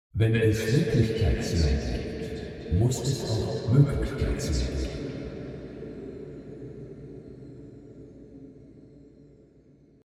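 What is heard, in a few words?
wembley stadium male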